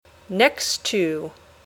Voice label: "next to", English